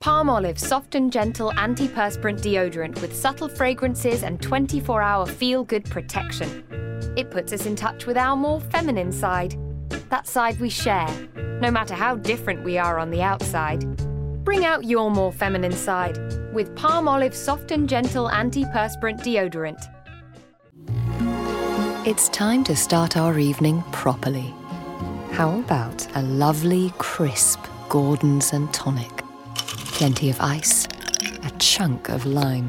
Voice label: commercial